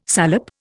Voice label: "salep", Indian